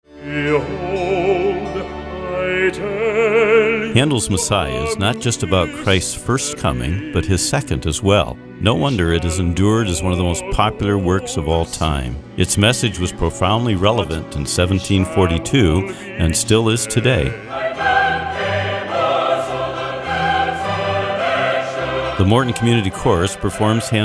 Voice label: radio spot